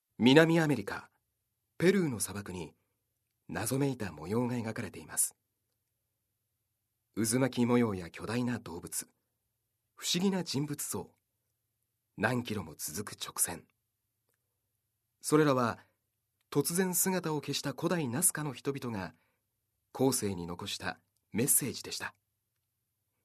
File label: narration